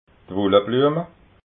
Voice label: "wullabluema", alsace

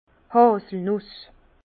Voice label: "haselnus", Bas Rhin; vin; noisette

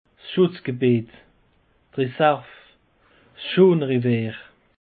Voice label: "schutzgebiet", alsace; peche

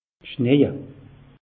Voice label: "schneije", alsace; meteo

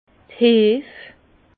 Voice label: "heef", Bas Rhin; levain levures; vin

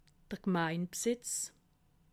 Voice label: "gemeinbsitz", alsace

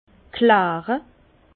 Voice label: "klare", Bas Rhin; vin; clarifier

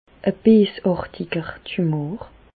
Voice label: "beesartiger", Bas Rhin; medecine; tumeur maligne

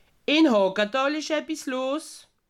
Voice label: "ebbis", alsace

